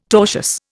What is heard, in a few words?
"tortious", Indian